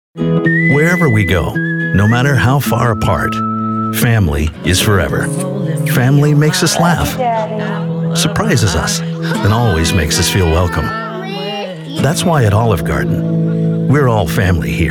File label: Commercials